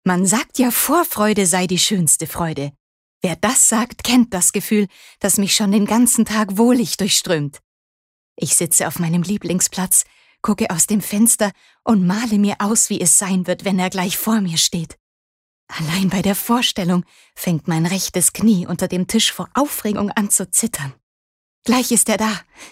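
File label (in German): Schauspiel Vorfreude Dynamisch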